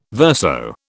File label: "verso", British